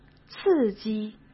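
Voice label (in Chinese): "刺激", Mandarin Chinese; hsk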